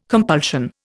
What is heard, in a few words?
"compulsion", Indian